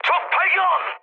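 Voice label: Korean enemy detected mood high; War Thunder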